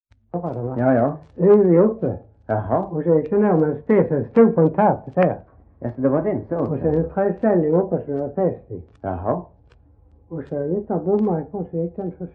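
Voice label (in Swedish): Röstånga Skåne, dialekter svenska sagor; Folkminnen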